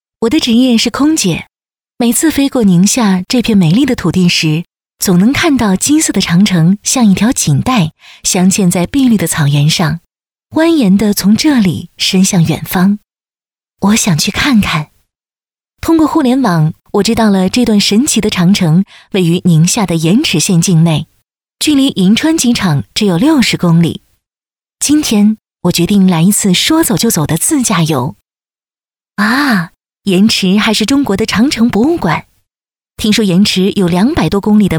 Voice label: 女 微电影; 盐池

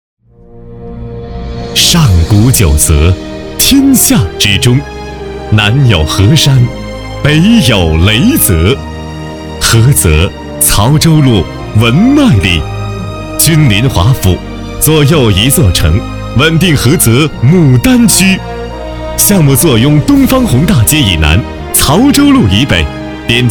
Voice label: 男 广告; 君临华府

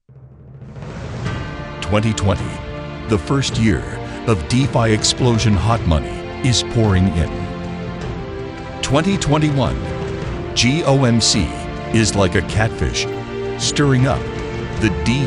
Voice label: 外籍男, 宣传片, 英文

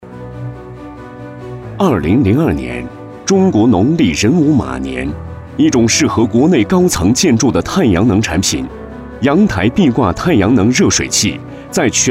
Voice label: 男-专题-气度自如; 荣耀十五年

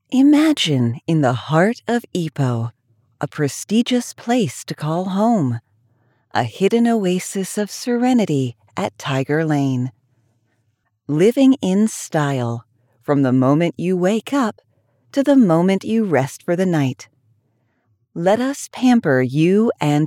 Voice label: 成熟知性

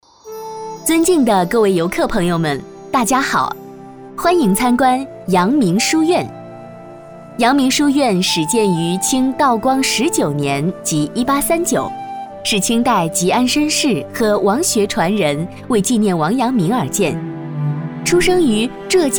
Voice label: 女 专题 书院参观介绍 自然亲切; 阳明书院

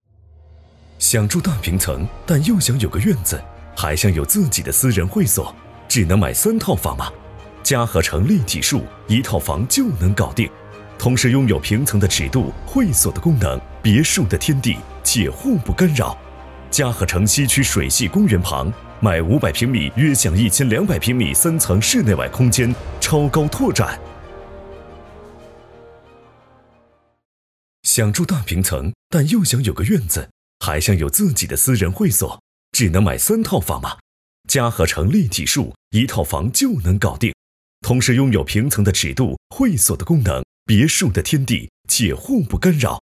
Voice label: 男 宣传; 平墅

